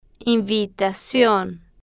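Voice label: "invitación" Spanish, female, slow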